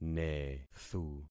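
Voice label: "nethu", concatenated syllables; Affixing experiment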